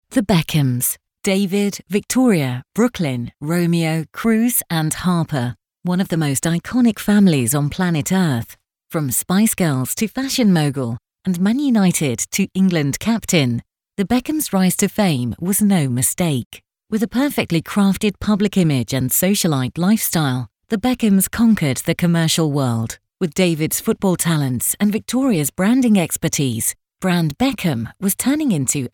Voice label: Documetary